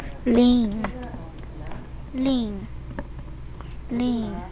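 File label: "ring", spoken word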